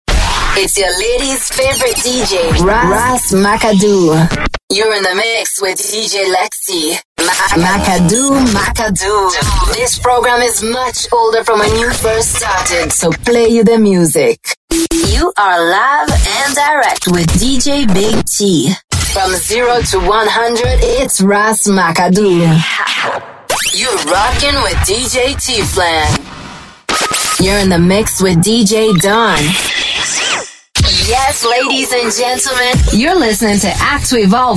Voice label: Brazilian Portuguese DJ Drops, Brazilian Portuguese Female